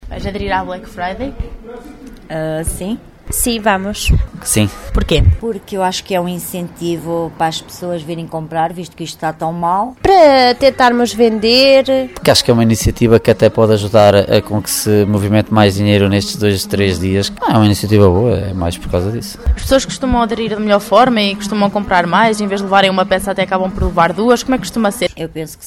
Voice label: vox pop; macedo de cavaleiros adere a tradicao americana